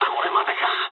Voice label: aircraft destroyed mood low, speech jp